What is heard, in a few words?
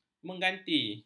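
"mengganti", Malay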